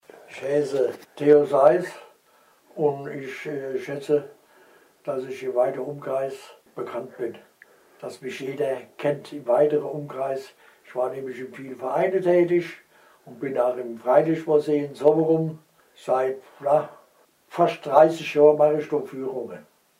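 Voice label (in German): stellt sich vor, Hunsrück; Staudernheim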